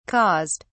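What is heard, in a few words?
"caused", British English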